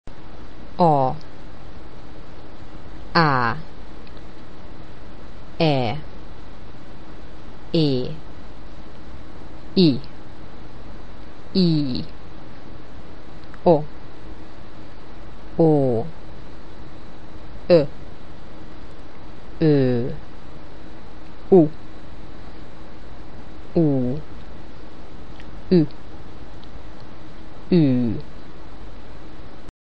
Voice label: Hungarian All Vowels